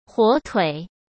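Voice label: "火腿", Mandarin Chinese; chinese flashcards; hsk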